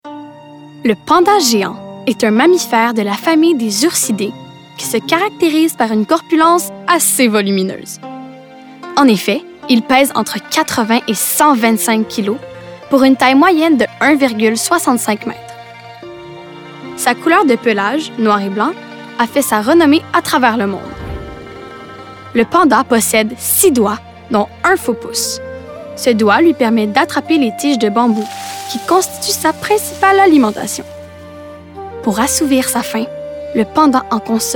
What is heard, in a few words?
narration